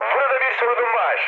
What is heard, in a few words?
aircraft damaged mood high, speech; War Thunder